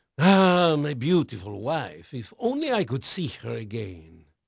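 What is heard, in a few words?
Portuguese male, voice; Pirates Of The Caribbean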